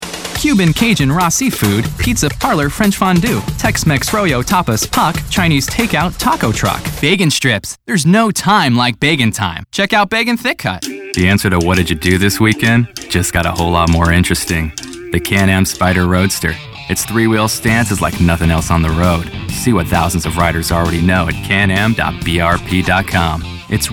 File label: Commercial